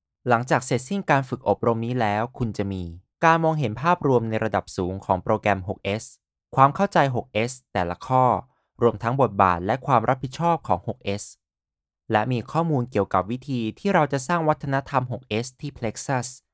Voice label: Thai, Male